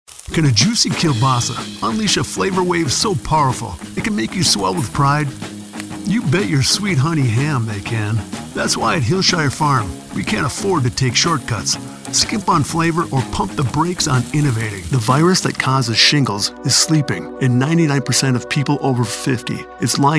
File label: Commercial